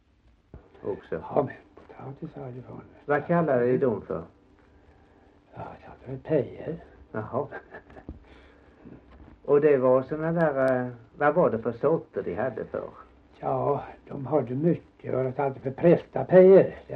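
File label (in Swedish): Gällared Halland, Svenska sagor, dialekter; Folkminnen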